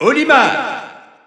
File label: French Announcer; Olimar; SSBU